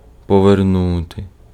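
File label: Ukrainian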